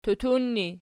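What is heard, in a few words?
"tutun" ergative, Archi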